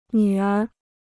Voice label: "女儿", Chinese

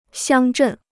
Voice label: "乡镇", Chinese

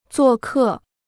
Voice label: "做客", Chinese

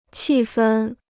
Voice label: "气氛", Chinese

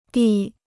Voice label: "第", Chinese

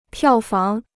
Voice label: "票房", Chinese

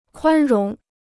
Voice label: "宽容", Chinese

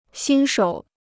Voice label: "新手", Chinese

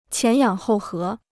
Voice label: "前仰后合", Chinese

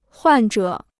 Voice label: "患者", Chinese

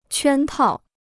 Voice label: "圈套", Chinese

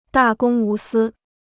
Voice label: "大公无私", Chinese

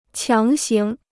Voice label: "强行", Chinese

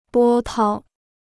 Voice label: "波涛", Chinese

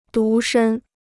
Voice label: "独身", Chinese

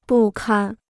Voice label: "不堪", Chinese

